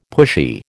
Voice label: "pushy", American